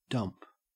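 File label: "dump", Australian English; pronunciation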